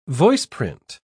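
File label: "voiceprint", British English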